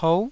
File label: "pou9", taiwanese